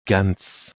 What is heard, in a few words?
"gans", Proto Germanic